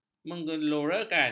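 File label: "menggelorakan", Malay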